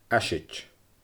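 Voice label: "aiseid", Scottish Gaelic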